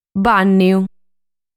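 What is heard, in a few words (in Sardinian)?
"Bànniu", Sardinian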